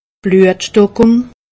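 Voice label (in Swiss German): "bluetstockung", Alsatian; medecine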